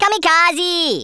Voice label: "kamikaze", Rasta